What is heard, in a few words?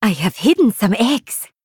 Draenei Emote HAPPY NOBLEGARDEN